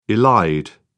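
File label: "elide", British English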